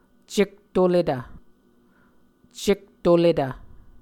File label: "cektoletv", muscogee